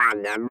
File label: robot voice